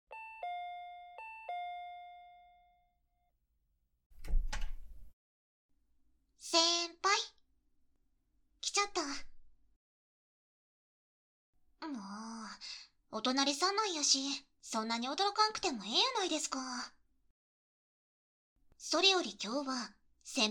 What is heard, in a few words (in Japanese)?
年下の京都弁を話す後輩から誕プレとして耳かきしてもらった。右耳